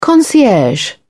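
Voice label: "concierge", British English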